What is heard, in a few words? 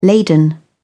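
"laden", British English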